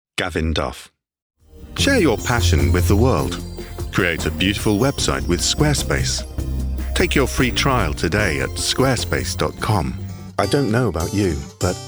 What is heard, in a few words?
Commercial